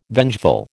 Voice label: "vengeful", American

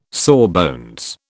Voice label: "sawbones", British

